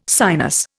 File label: "sinus", Indian